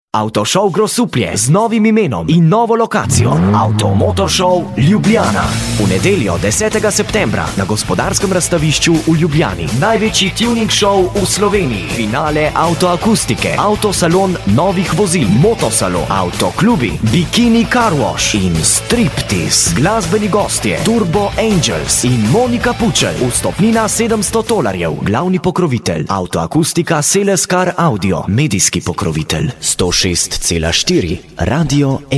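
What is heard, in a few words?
slovenian male commercial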